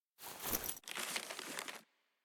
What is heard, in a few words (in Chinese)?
书店外黄昏 报童